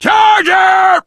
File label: charger, survivor voice biker; l4d1; Left 4 Dead 2